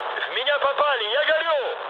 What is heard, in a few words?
on fire, mood med, speech ru; War Thunder